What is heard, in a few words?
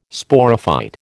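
"Sporophyte", American English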